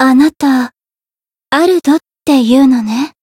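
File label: Voice story, japanese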